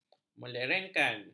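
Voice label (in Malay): "melerengkan", Malay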